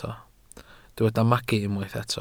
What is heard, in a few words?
"magu", Welsh